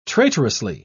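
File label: "traitorously", British English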